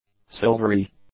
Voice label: "silvery", English; adjectives